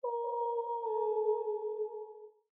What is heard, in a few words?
headset spooky voice; Barotraumatic; HumanImmortalnovice